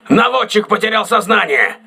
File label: gunner contused, crew ships russian